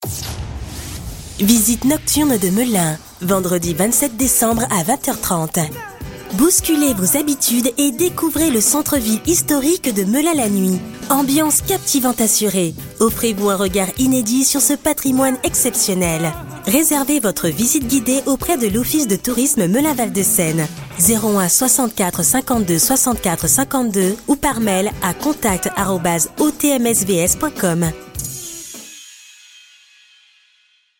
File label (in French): Spot publicitaire souriant chaleureux; Visite nocturne de Melun